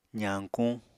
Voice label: "zañkuñ", Apatani

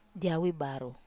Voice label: "awi baru", Wamesa

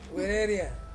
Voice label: "wereria" no not, Ansus

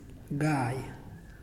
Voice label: "Swim", Muyuw; Lae Unitech

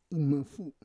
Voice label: "imafu", Roon

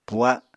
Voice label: "puha", Apatani

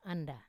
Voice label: "anda", mango, Wamesa

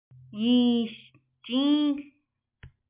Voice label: navajo verb mode

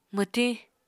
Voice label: "mwtiñ", Apatani

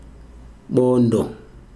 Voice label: "bone", Chikunda

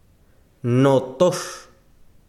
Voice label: besiro sangre, chiquitano

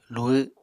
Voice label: "luhw", Apatani

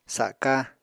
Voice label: "saka", Apatani

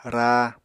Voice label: "raa", Apatani

